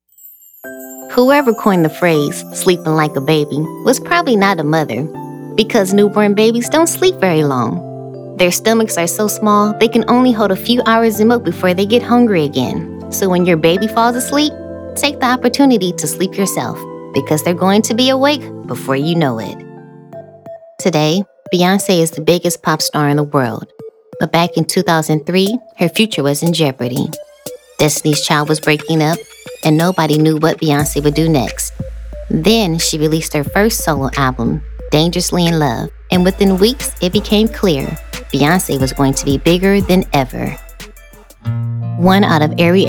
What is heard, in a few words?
Narration